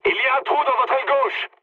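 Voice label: left wing, mood medium, French; War Thunder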